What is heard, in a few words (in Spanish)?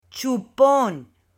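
"CHUPON", Spanish, female; AppLenguaje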